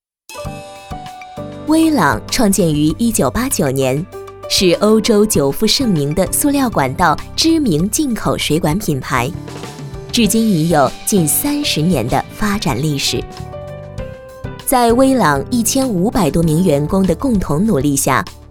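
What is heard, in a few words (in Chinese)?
国语 女声 宣传片-企业宣传片【稳重亲切成熟】 大气浑厚磁性 沉稳 积极向上 亲切甜美; 德国微朗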